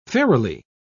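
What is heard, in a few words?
"fairily", British English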